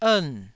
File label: "ern4", Taiwanese